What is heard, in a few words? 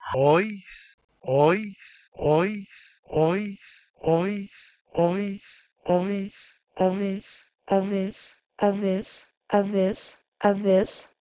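Proto-Indo-European Howis to Lithuanian avis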